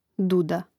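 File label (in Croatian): "duda", Hrvatski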